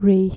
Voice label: "ray", dutch